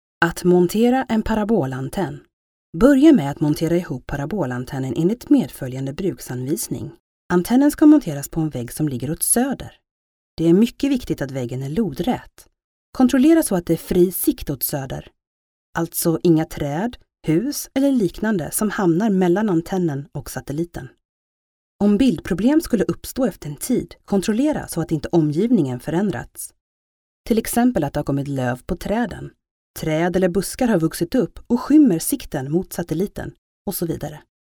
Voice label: swedish female